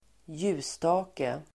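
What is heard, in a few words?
"ljusstake", Swedish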